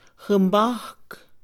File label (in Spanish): "braza", Mixe, Mujer; INALI